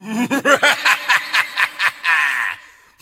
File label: demonic lead vo; 恶魔莫提斯